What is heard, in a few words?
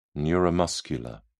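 "neuromuscular", British English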